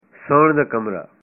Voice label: "bedroom", punjabi

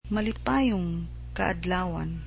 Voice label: "Happy birthday", cebuano

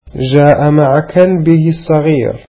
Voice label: arabic he came with his small dog

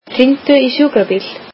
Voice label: icelandic Call the ambulance

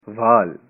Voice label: "hair", punjabi

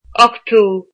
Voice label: "eighth", Irish

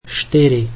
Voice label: slovak four

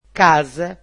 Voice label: "house", Portuguese

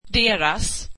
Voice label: their, swedish

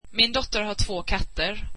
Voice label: swedish my daughter has two cats

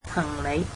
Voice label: "luggage", cantonese

